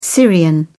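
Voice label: "syrian", British English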